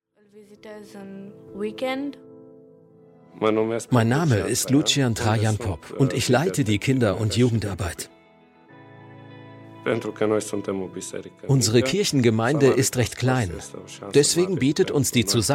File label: VO smart emotional; Samaritans Purse